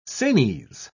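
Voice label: "sanies", British English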